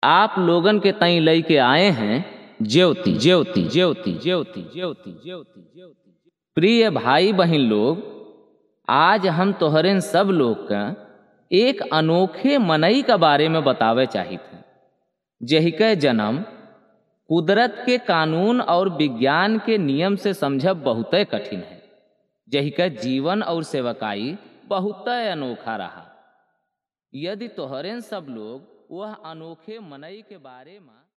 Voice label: life of christ awadhi; awa awadhi audio bible

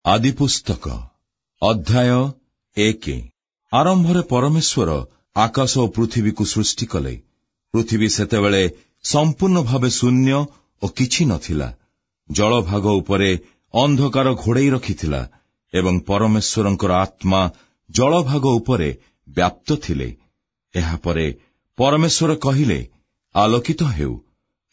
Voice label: oriya, bible, audio bible; bible ory odia; erv

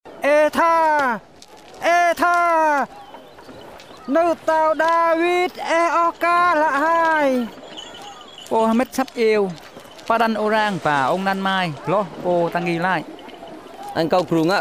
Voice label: cham eastern, story of jesus, audio bible; cjm cham eastern

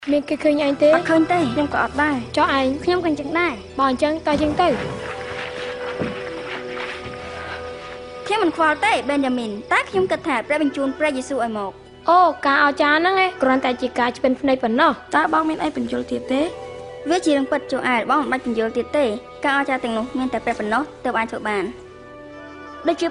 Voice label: khmer, story of jesus for children; khm khmer audio bible

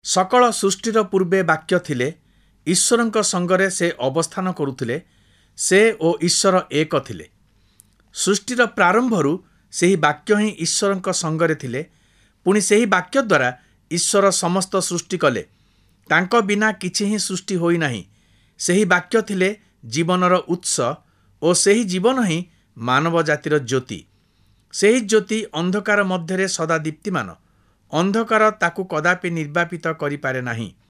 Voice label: nt oriya; new testament ory odia oriya audio bible

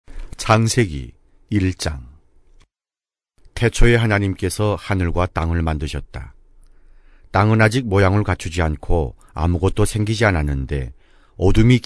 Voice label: north korean, bible, audio bible; kor korean northern

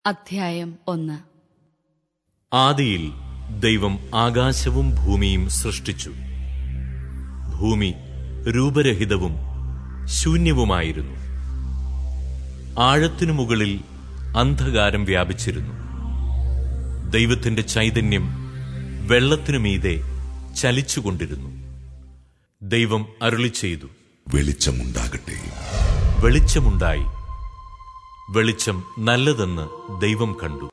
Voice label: malayalam, bible dramatized, audio bible; bible and deuterocanon dramatized mal malayalam

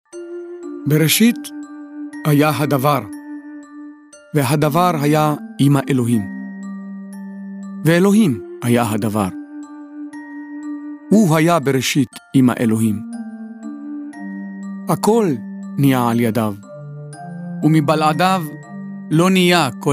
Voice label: hebrew, new testament dramatized, audio bible

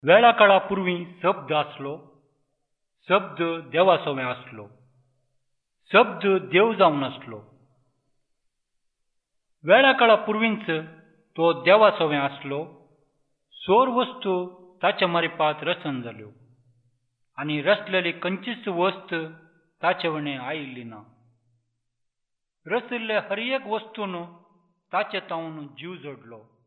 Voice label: new testament konkani; knn konkani audio bible

